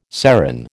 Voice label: "serin", American